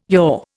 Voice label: "your", Indian

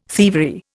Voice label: "thievery", Indian

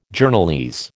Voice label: "Journalese", American

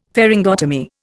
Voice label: "pharyngotomy", Indian; Dictionary

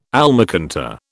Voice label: "almacantar", British

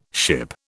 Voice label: "ship", British

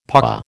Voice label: "paua", American

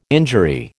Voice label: "injury", American

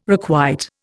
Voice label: "requite", Indian

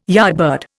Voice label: "yardbird", Indian

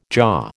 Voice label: "jaw", American

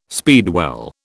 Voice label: "speedwell", British

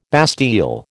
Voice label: "bastille", American

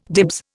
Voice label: "dibs", Indian

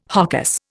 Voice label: "hocus", Indian

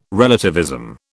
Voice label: "relativism", British

